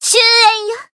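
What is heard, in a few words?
Voice Japanese; suzette; finish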